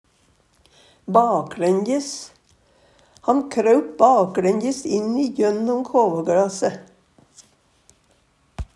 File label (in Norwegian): "bakLænjes", Numedalsmål; med baksida/ryggen fyrst; Han kraup bakLænjes inn jønnom kåvågLase.; Adverb